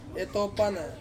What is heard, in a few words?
"topana", to say, Ansus